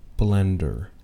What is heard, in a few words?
"blender", anglais